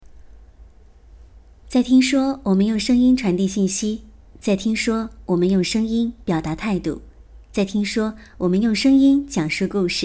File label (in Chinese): 讲述类